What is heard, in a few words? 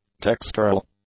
"textural", English; adjectives